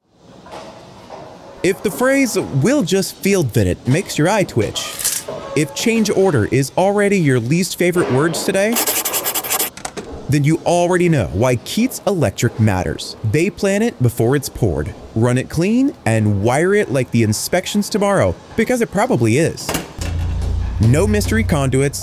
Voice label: Commercial; Keatts Electric